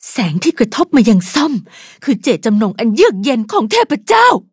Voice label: level up, th; cookierunwiki